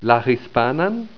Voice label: "la hispanan", Esperanto; Spanish